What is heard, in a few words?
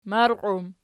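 "marqiw" plural, Archi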